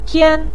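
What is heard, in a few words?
"pian" neutral tone, Mandarin Chinese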